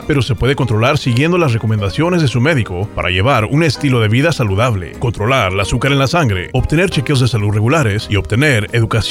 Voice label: PSA Diabetes Month Spanish